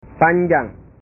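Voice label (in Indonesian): "panjang", Indonesian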